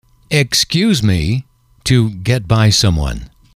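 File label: "excuse me to get by", English, male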